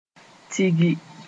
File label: "tigi", Moore; to flock together